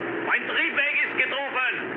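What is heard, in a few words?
German damaged engine mood low, speech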